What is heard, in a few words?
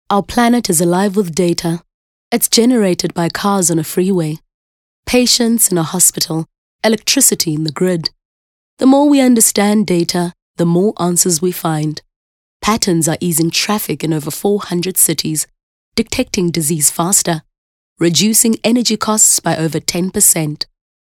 English Conversational